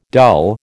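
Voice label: "dull", American English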